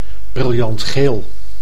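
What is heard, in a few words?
"briljantgeel", Dutch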